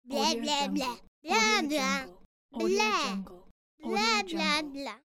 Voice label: little boy says blah blah

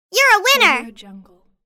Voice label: cartoon saying you're a winner; applehillstudios

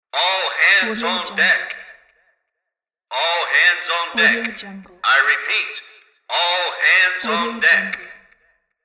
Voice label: all hands on deck announcement

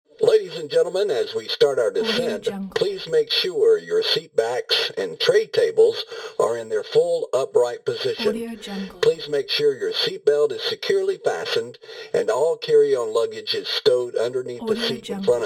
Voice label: airplane steward final descent message

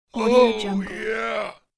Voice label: old male tired "oh yeah"

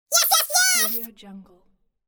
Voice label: cartoon minion fast yes yes yes

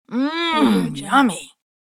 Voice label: mature female saying mmm yummy

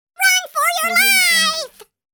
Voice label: cartoon character run for your life

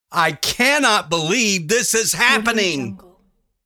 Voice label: male saying i cannot believe this is happening

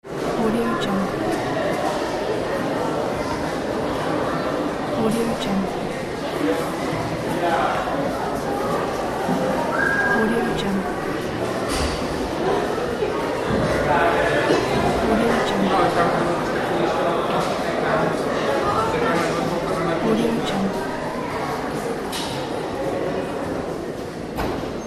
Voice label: talking people

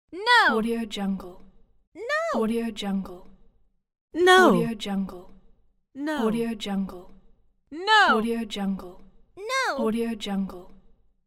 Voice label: female saying no

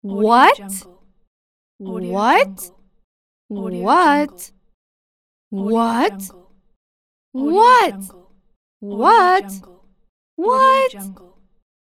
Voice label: girl says what

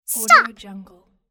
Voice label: cartoon girl stop

